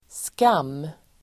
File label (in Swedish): "skam", Swedish